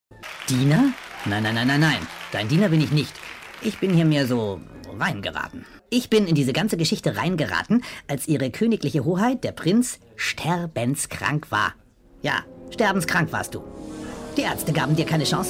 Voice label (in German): Zeichentrick Synchron Comic